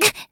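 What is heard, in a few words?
Voice damaged Japanese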